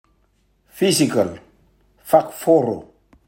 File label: Physical Fakforo, Rotuman; 2022 Pacific Language Weeks